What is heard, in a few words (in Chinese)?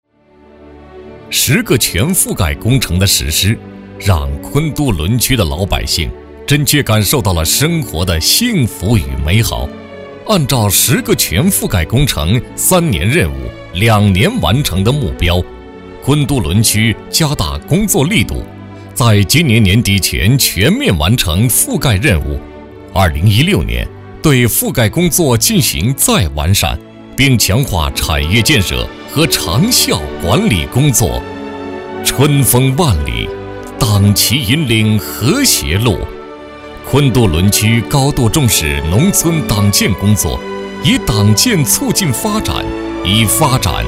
国语男声配音 正式 浑厚; 党建; 农村 十个全覆盖; 央视老师